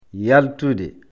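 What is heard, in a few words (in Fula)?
"yaltude", Fula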